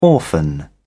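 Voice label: "orphan", British English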